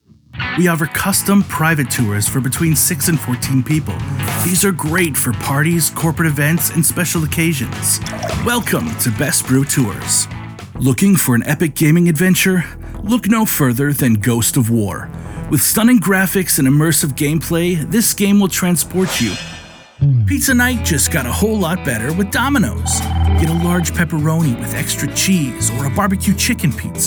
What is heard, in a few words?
Commercial